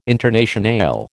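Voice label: "Internationale", American English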